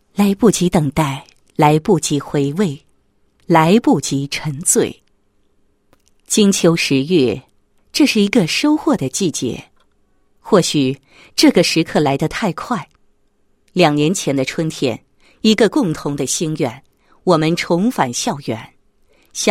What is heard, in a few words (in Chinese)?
女-学校-学校毕业词, 配音案例